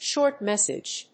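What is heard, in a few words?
"Short Message", English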